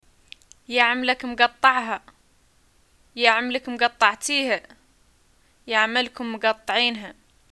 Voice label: greeting new thing, arabic